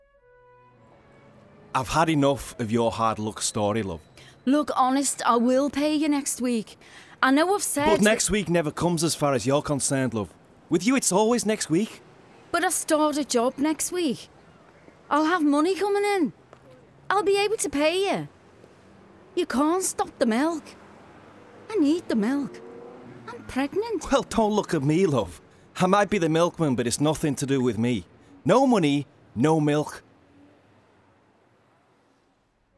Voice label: Audiobook, Yorkshire, Warm, Grounded, Relaxed, Approachable, Current, Versatile; Blood Brothers